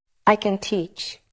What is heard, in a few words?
Voice Acting, es